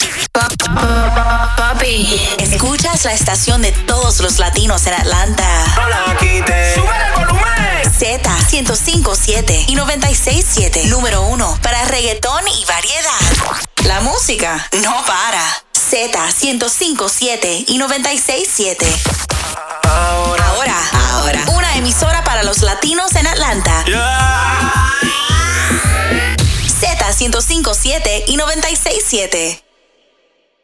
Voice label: Spanish

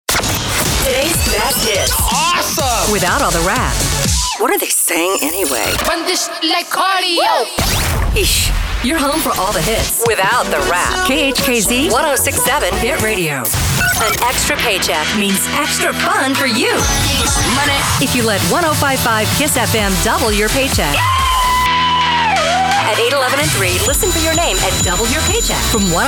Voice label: Imaging

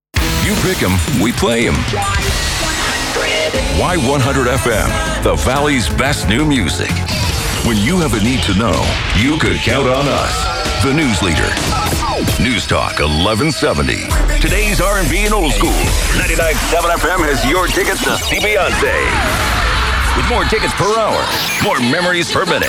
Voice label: Radio Imaging; Country; Classic Rock; AC; Hot AC; Classic Hits

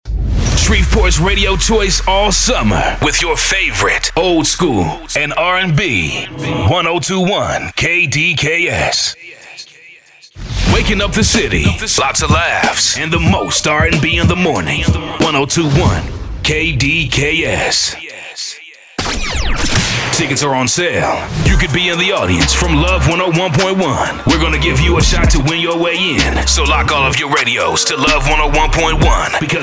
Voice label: ADULT RB

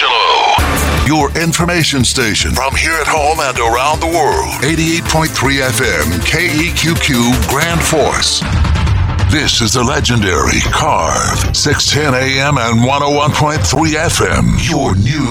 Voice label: newstalk sports

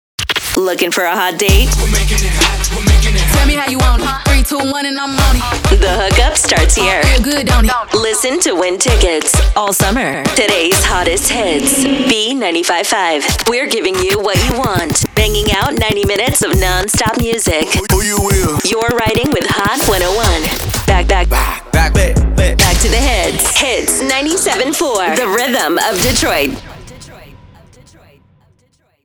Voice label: RHYTHMIC

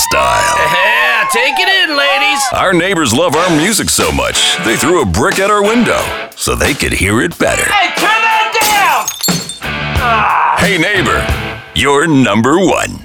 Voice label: Classic Rock Radio, Voice Over

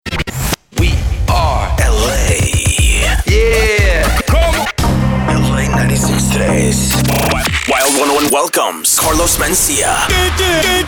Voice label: Rhythmic CHR

